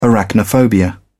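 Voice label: "arachnophobia", British English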